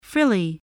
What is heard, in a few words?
"frilly", English voice, spelling